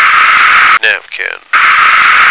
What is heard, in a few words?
"napkin", American